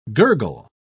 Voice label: "gurgle", pronounce

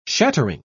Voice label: "shattering", pronounce

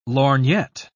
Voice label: "lorgnette", pronounce

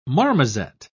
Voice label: "marmoset", pronounce

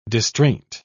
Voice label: "distraint", pronounce

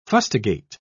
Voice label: "fustigate", pronounce

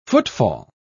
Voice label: "footfall", pronounce

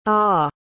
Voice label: "ahh", pronounce

